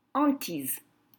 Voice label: "Hantise", French